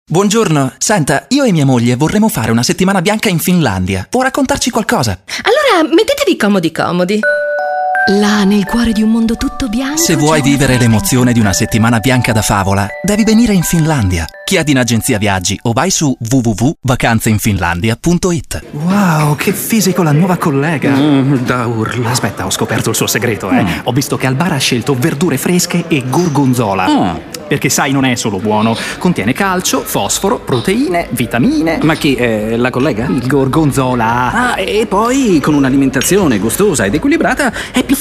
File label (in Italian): naturale semplice